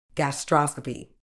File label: "gastroscopy", medical English